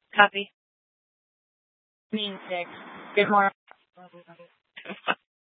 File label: Mesa PD Fiesta Dispatch